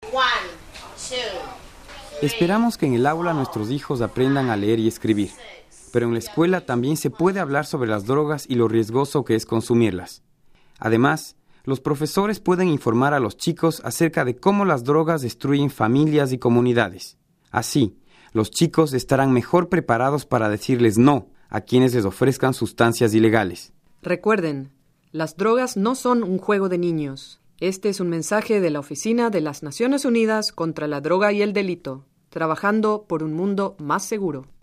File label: Spanish